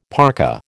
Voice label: "parka", American